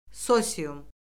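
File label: "sosium", Azerbaijani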